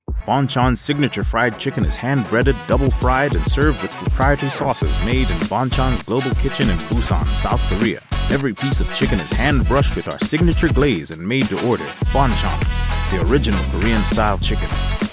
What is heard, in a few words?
commercial